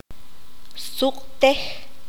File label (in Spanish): "oler", Q'anjob'al